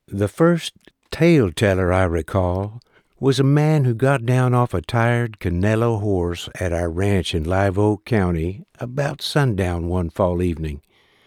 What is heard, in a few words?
Memoir, narration; Some Part of Myself